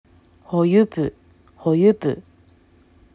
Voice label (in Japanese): "ホユプ", アイヌ語; hoyupu; アイヌ語クイズ; ホ ho・尻 ユプ yupu・をきつく締める